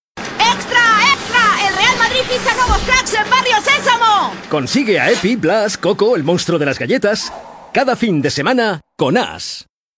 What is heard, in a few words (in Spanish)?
Spot voz niño